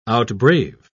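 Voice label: "outbrave", British English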